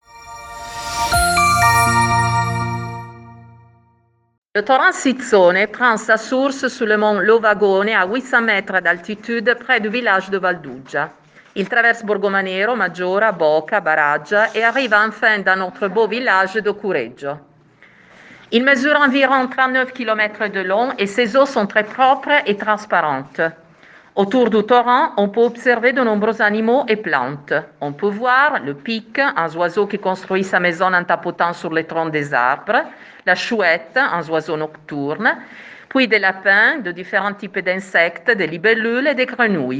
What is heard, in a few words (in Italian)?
francese; Sizzone